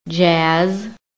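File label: "jazz", American English; PHONETIC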